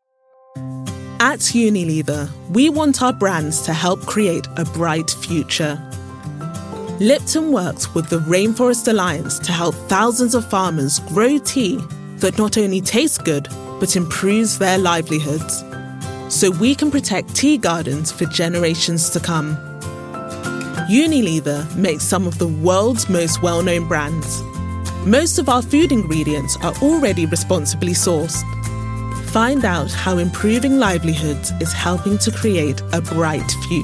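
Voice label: British English, Corporate; GoLocalise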